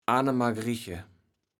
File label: "Annemagrigge", platt